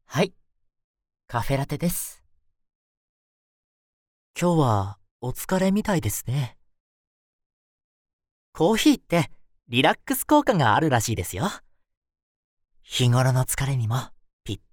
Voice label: male